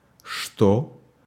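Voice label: "что (што)" Russian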